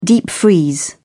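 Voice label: "deep freeze", British English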